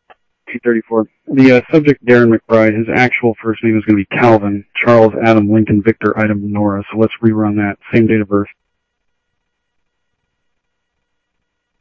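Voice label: Lakes Sheriff Lake Patrol, scanner audio trunk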